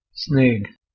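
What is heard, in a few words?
"sneigh", Proto-Indo-European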